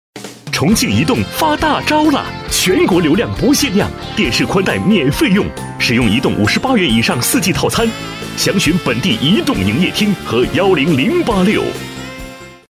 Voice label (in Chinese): 男国 广告 手机通信; 重庆移动通信